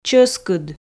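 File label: "чоскыд", Komi, female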